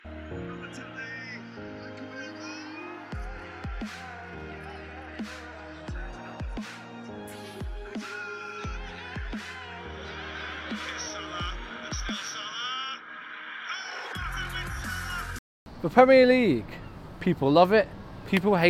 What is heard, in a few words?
Podcast